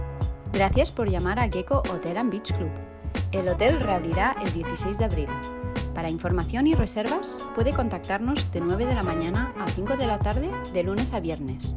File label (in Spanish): mensaje contestador